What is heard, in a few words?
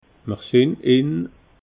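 "mersinnin", Alsatian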